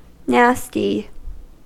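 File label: "nasty", American English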